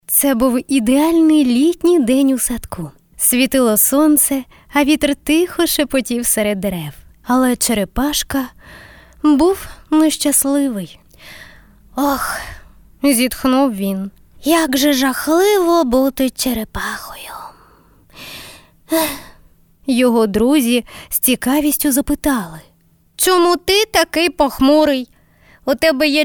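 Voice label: kazka ukr, Ukrainian fairy tale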